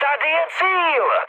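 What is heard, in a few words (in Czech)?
Czech near waypoint, mood medium; War Thunder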